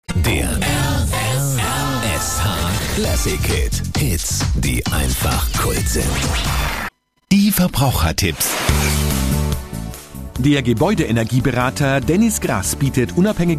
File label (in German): Werbung; RSH